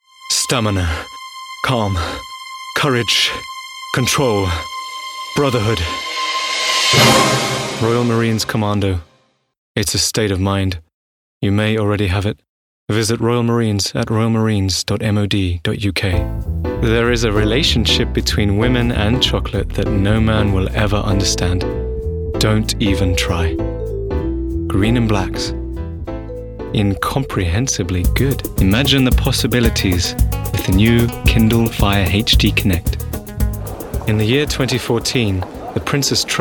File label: British Commercial